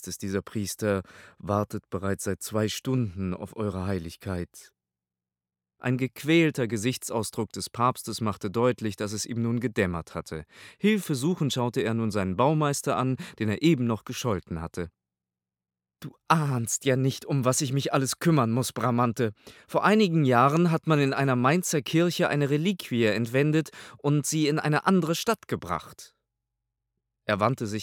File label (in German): Sprecherprobe Deutsch; das haupt der anna